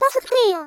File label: "perfect clear", vocal; Techmino; miku